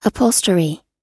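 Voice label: "upholstery", American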